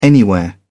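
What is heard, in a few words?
"anywhere", British English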